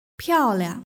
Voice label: "piaoliang", Chinese; hsk5